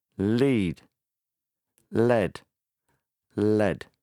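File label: "lead", English; vezet, elvezet valaki valahova; állatot (pl.. pórázon) vezet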